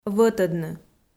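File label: "вӧтӧдны", Komi, female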